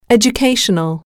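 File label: "educational", English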